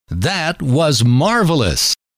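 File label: that Was Marvelous, male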